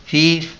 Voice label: "fif", Anglo Saxon

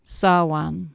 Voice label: "sawan", Anglo Saxon

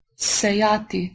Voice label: "sejati", Slovenian

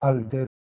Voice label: "alter", Latin

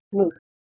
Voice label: "muth", Proto-Germanic

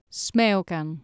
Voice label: "smeocan", Anglo Saxon

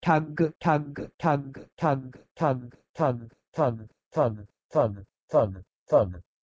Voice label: Punjabi "thag" to English "thug"

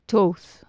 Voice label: "toth", Anglo Saxon

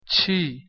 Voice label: "chi", Ossetian

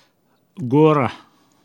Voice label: "gurH" heavy, Pashto

